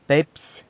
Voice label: "pepsy", San Lucas Quiavini; pepsi